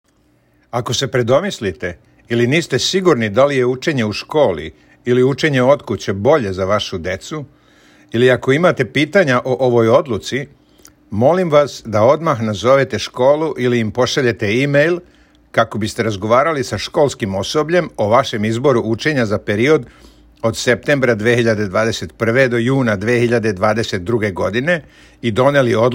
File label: SERBIAN